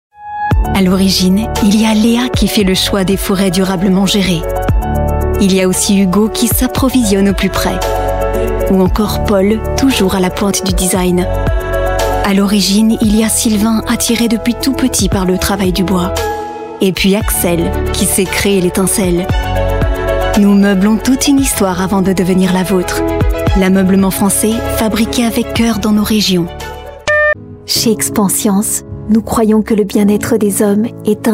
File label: french voice over female commercial